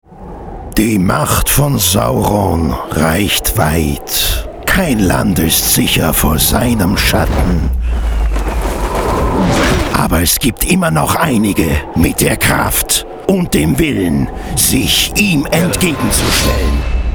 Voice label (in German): Werbung Computer Games